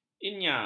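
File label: "inya", Malay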